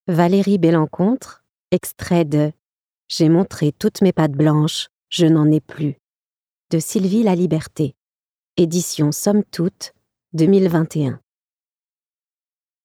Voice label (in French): Livre audio; J'ai montré toutes mes pattes blanches je n'en ai plus